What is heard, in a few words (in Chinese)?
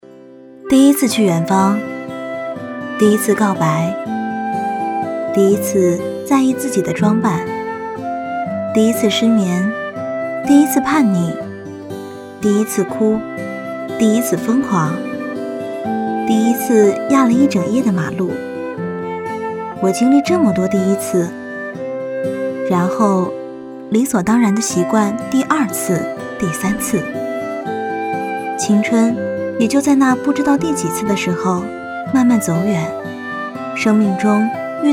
女 微电影独白 青春 年轻青涩; 年轻舒缓